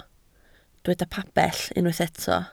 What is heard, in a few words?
"pabell", Welsh